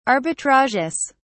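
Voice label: "arbitrages", British English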